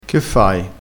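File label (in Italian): "cosa mi pare", dialettale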